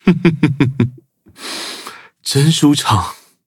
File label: levelup zh-hant; Cookie Run